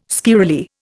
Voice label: "squirrelly", Indian

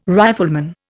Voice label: "rifleman", Indian

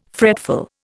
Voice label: "fretful", Indian

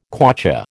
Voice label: "kwacha", American

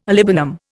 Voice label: "olibanum", Indian

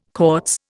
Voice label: "Cortes", Indian

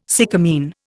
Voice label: "sycamine", Indian

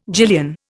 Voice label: "gillion", Indian

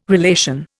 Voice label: "relation", Indian

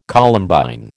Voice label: "Columbine", American

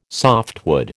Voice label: "softwood", American

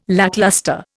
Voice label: "lacklustre", Indian

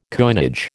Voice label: "coinage", American

